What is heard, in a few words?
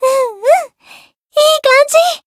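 Voice win, Japanese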